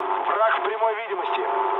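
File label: Russian enemy detected mood low, speech; War Thunder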